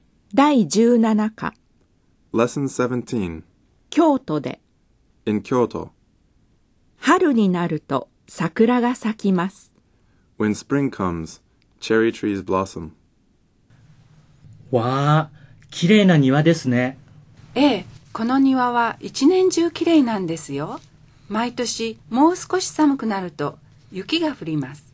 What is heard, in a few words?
Dialog